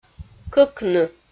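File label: "кӧкны", Komi-Zyrian, female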